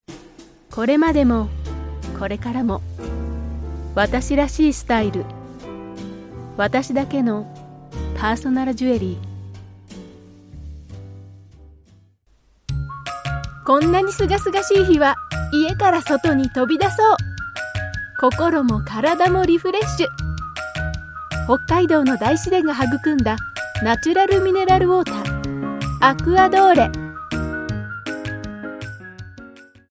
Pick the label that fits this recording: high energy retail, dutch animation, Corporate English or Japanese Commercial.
Japanese Commercial